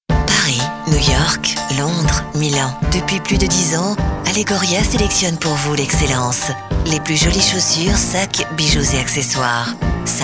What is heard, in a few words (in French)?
sensuel